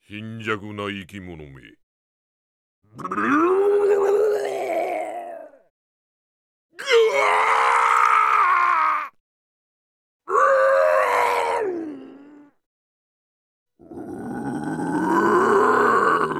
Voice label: character voice; D-XHIRD; IZANAGI